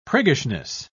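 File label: "priggishness", British English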